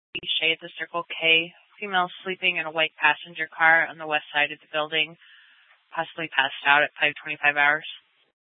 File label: Dispatch; Scottsdale